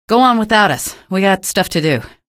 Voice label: evac no, female; video games; npc; source engine; episodic; episode 1

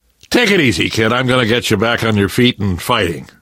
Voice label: revive friend, left4dead2 survivor voice namvet

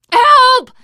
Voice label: horde attack, teen girl, survivor voice

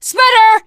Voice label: spitter, teengirl; video game; source engine; left4dead2; survivor

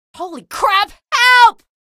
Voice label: evac casualty, female; video games; npc; source engine; episodic; episode 1

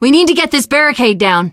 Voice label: barricade, teengirl; video game; source engine; left4dead2; survivor

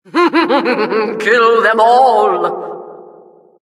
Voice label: announcer, vo, killstreak

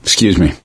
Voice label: sorry, survivor voice

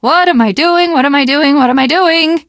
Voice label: jumping off bridge, teengirl; video game; source engine; left 4 dead 2; survivor; voice

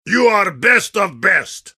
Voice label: heavy summary callout; compmode

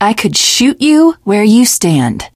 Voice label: initial meeting, teen girl

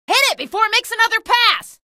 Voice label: alert gunship, female; episodic; episode 1; Source engine

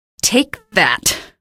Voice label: kill, female; episodic; episode 1; Source engine

